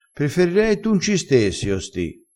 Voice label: "stessi", crespinese

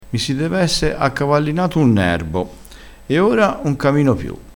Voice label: "accavallinassi", Crespinese

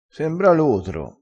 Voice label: "lotro", crespinese

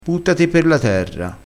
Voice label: "per la terra", crespinese

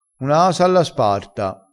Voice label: "sparta", crespinese

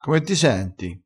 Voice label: "facendo le orna", vocabolario dialettale

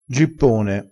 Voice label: "gippone", dialettale crespinese

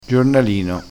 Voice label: "giornalino", crespinese

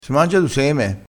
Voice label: "sema", vocabolario dialettale

